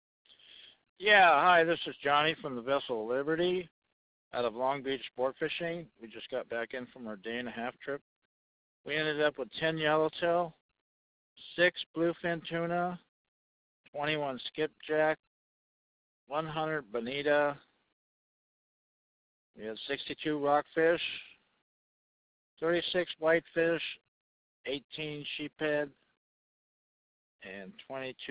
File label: voice message